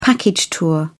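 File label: "package tour", British English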